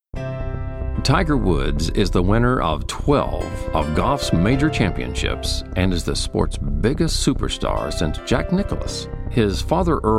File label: male voices for radio commercials